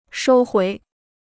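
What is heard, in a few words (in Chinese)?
"收回" Chinese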